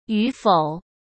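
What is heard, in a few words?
"与否", Mandarin Chinese; chinese flashcards; hsk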